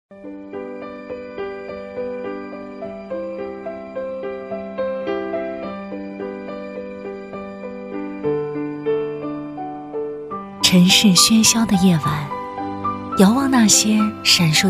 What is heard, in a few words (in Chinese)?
女 专题 样音; 梦想